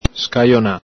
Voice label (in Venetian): "scajonà", Veneto; pylon, trellis; el Galepin; traliccio